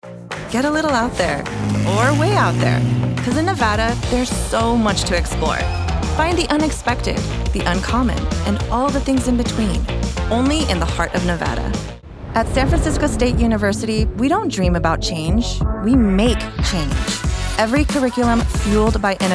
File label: Commercial